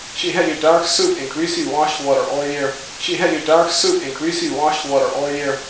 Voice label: Noisy Reverberant Speech